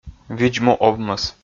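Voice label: видз-му овмӧс, Komi, male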